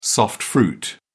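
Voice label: "soft fruit", British English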